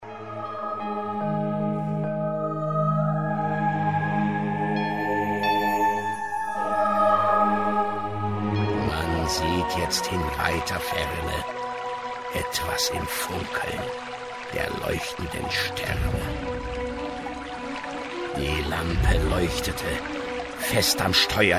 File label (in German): Balladen Trailer, hoerspiele